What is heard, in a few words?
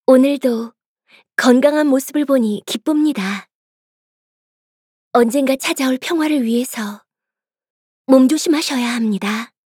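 voice Korean welcome; Daphne; Dafu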